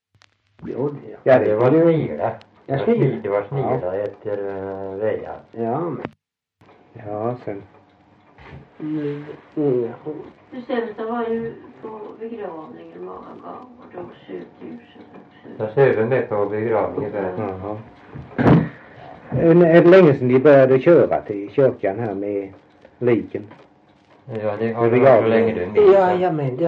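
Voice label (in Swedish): Värö Halland, Svenska sagor dialekter; Folkminnen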